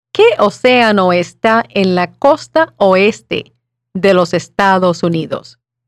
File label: Spanish